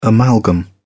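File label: "amalgam", English, British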